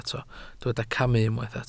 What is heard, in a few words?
"camu", welsh